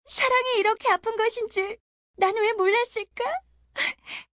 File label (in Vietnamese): Nhac chuong tieng nhat ban dang yeu